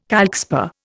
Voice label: "calcspar", Indian